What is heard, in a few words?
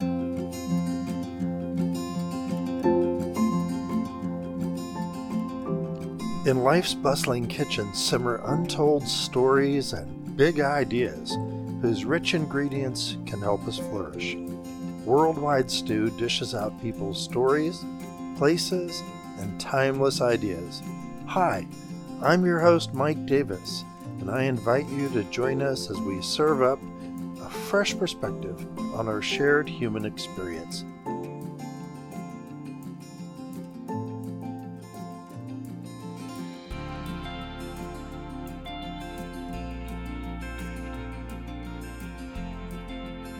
voice music intro